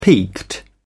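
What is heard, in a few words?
"peaked", British English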